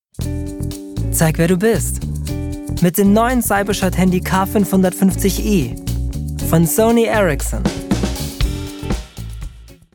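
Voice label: German M Commercial, male; voice over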